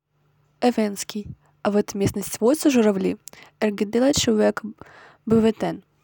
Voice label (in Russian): эвенский 1 предложение